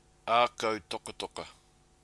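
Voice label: "akau tokatoka", Maori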